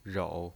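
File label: "rou", Mandarin Chinese pinyin voice, third tone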